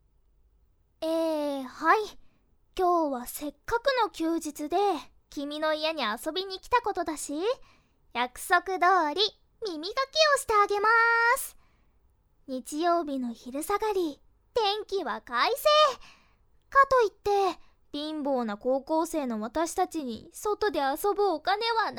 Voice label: ボイスのみ 君に囁く, ASMR

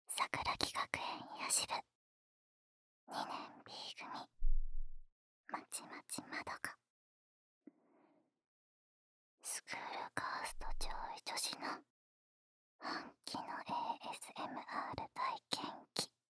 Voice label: タイトルコール, ASMR

